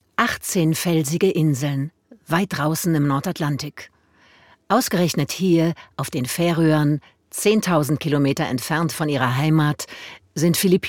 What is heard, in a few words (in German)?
Doku Kommentar, German; voice